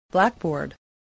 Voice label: "blackboard", spelling